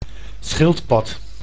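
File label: "schildpad", Dutch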